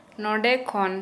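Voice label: Santali female from here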